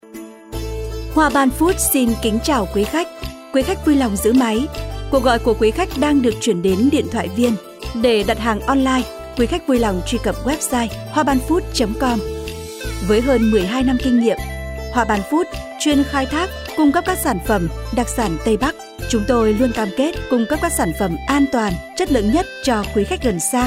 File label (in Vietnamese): Thu am nhac cho, MC nu mien Bac, Cuc loi cuon; Hoa ban food